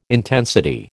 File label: "intensity", American